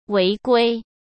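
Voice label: "违规", Mandarin Chinese; hsk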